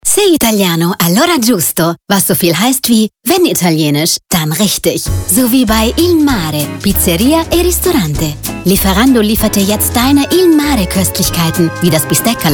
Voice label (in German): DE Werbung Radio; Lieferando